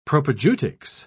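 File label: "propaedeutics", British English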